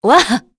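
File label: Vox Happy, Korean; Glenwys; kingsraid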